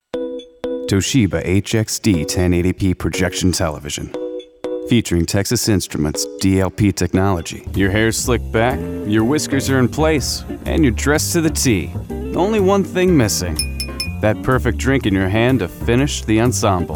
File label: Commercial